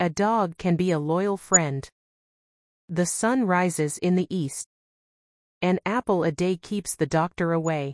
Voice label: gramatica clase ingles; Ve el video para empezar a asimilar la entonación del inglés.

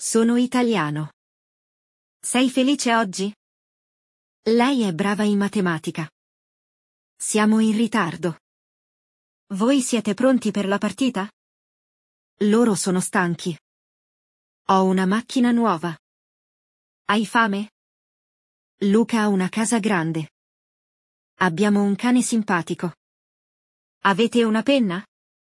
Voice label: GRAMATICA CLASE ITALIANO; los verbos auxiliares essere avere

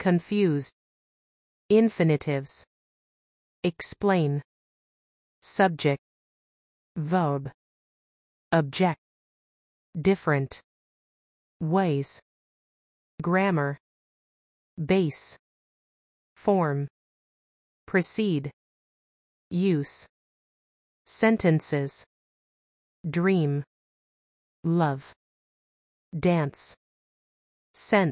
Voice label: notas de texto infinitivos ingles; repaso infinitivos

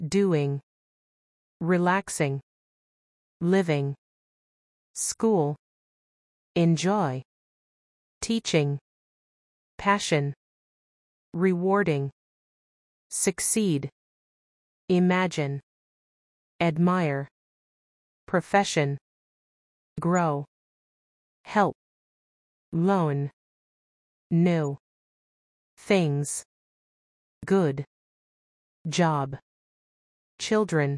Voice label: notas de texto presente simple verbos estativos ingles

